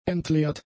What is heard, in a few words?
"entleert", German; adjectives